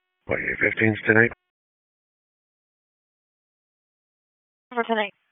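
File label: Sheriff's Office Dispatch 1, p25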